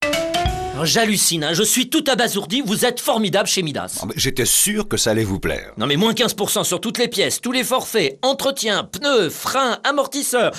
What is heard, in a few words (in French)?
radio pub spot